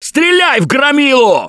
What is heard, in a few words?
grabbed by charger, russian, Survivor Voice